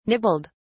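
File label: "nibbled", British English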